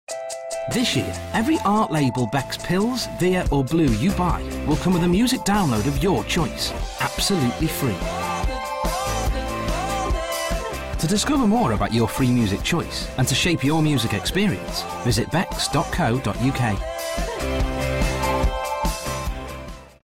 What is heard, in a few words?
Promo; Becks Vier